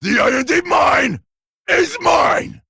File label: Male Trogg